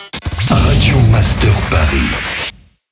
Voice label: Sweeper SLOW; Paris